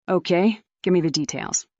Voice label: fem